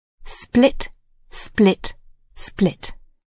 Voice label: "split", English